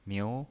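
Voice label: "miu", pinyin voice, Mandarin Chinese, second tone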